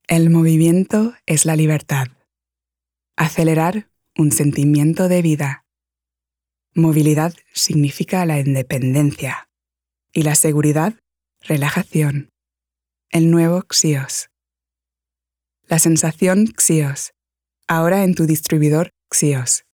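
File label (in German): Spanish, Werbung; Xios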